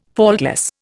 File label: "faultless", Indian